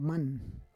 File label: "man", Roon